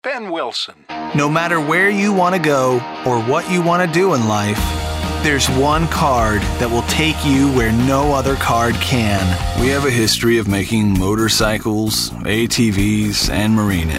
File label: male; Spotland Productions